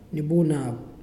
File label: "dry", Muyuw; Lae Unitech